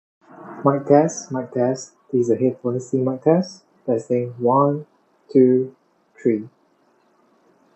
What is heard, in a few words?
Quiet; TIN HiFi T2000